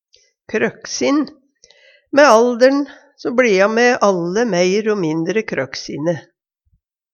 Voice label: kroksin, Numedalsmål; Adjektiv

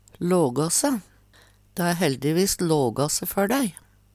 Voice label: laga se, Numedalsmål; ordne se; Dæ har heldigvis lågå se før dei.

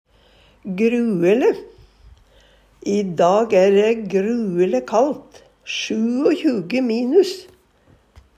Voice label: gruele, Numedalsmål; Adverb; grueleg, frykteleg, fælt

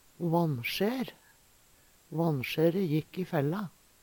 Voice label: "vannsjær", Numedalsmål; Vannsjære jekk i fella.

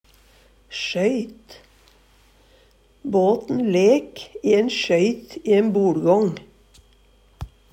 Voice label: sjøyt, Numedalsmål; Substantiv hankjønn; Båten lek i ein sjøyt i ein boLgång.

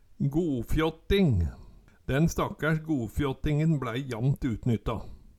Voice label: gofjåtting, Numedalsmål

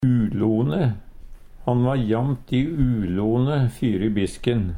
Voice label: "ulone", Numedalsmål; Han va jamt i ulone fyry bisken.; Adjektiv

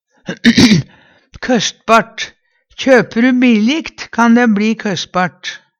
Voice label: kostbart, Numedalsmål

